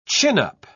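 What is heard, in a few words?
"chin-up", English, British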